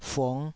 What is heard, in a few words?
"fung5", Taiwanese syllable